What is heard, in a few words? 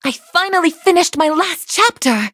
level up, en